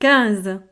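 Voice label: "quinze", French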